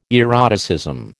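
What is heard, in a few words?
"eroticism", American English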